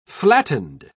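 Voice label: "flattened", British English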